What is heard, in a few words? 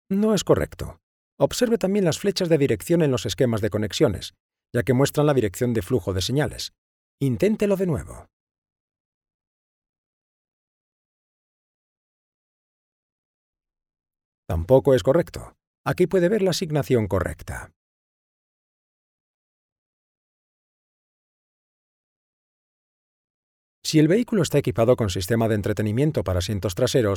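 Spanish, male, e-learning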